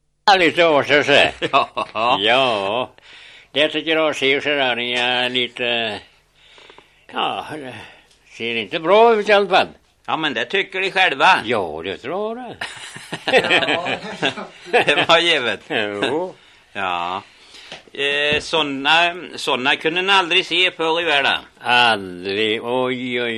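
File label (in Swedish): Gudhem Västergötland, dialekter; Folkminnen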